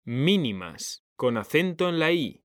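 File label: "mínimas", Spanish, male; mekanta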